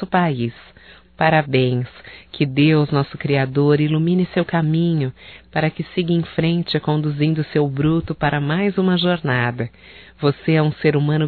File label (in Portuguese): HOMEN CAMINHONEIRO, Só Voz, fem; Telemensagens; HOMENAGENS